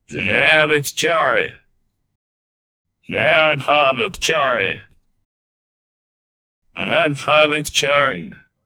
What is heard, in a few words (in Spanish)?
voz de hombre que diga, announcer